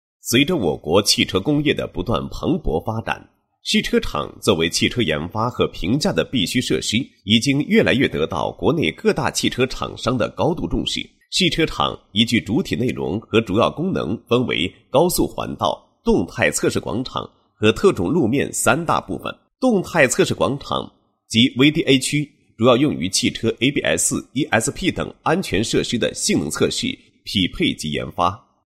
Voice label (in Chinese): 男 工程解说; 试车场一期工程解说